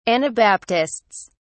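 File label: "anabaptists", British English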